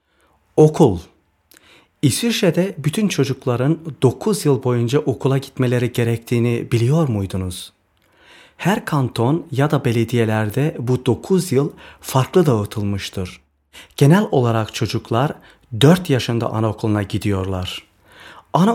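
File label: Turkish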